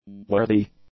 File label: "worthy", english; adjectives